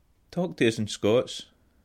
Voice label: speak to me in scots